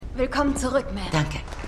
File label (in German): Empfangsdame; Constellation